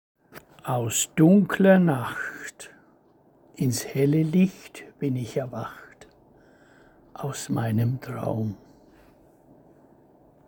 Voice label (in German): Erwacht, Gedichte eigene Lesung